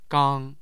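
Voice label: "gang", Mandarin Chinese, tone 1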